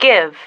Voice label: "give", female

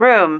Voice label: "room", female

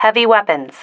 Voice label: "heavy weapons", female

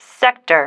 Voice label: "sector", female

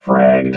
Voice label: "fragged", robot vox

